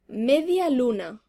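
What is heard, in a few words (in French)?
"croissant", French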